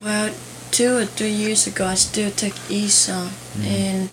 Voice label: Conversation with 12 year old male Virginia, american english dialect